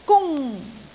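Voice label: "gung1", Cantonese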